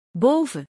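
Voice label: "boven", Dutch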